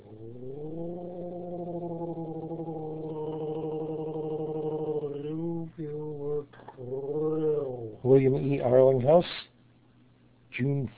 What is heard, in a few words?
uvular trill